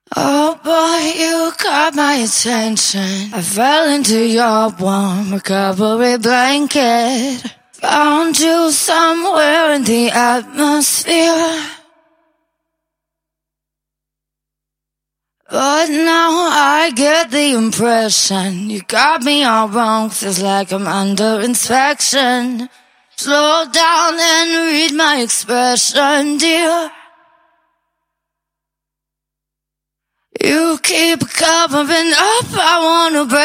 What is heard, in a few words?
Acapellas, 125BPM Key E